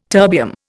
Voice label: "terbium", Indian

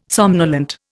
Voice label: "somnolent", Indian

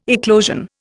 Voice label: "eclosion", Indian

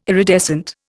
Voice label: "iridescent", Indian

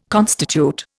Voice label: "constitute", Indian

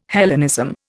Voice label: "Hellenism", Indian

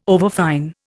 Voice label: "overfine", Indian

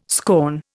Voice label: "scorn", Indian

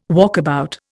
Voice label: "walkabout", Indian

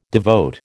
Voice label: "devote", American

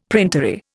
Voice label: "printery", Indian; Dictionary

